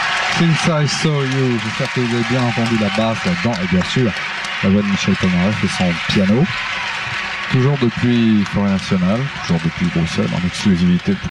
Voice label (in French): Présentateur annonce au piano Live; Forest National de Bruxelles; 1975